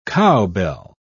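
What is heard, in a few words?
"cowbell", English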